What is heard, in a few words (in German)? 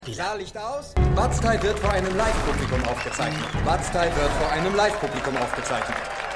Ansager, Stimmproben